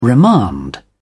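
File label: "remand", British English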